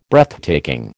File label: "Breathtaking", American